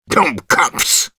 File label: negative vocalization, ru; Medic